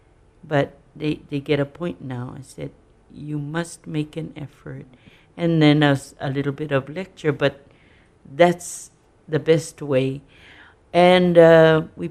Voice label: speaking Samoan; March is Samoan Language Month; Talanei